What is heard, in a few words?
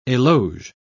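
"eloge", British English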